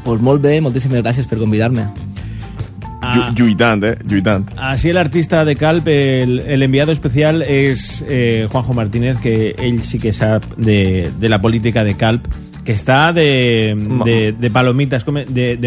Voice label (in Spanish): entrevista radio; compromis per calp; Radio Sirena COPE